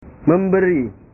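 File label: "memberi", Indonesian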